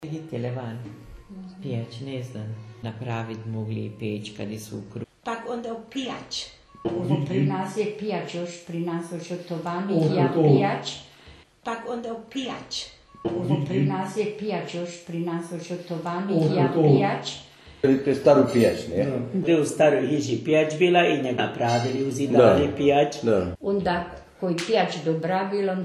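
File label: Long and falling ie: piêč 'oven', dialect of Petrovo Selo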